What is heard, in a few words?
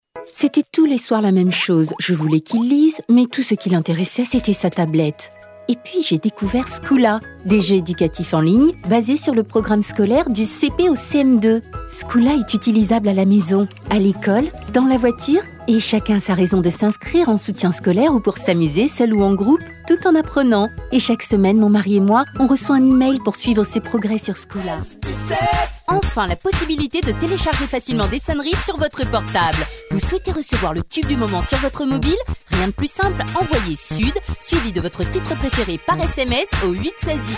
french female commercial